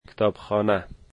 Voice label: library, Dari

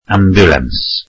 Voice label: welsh ambulance

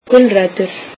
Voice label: icelandic carrots

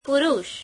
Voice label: gujarati, man

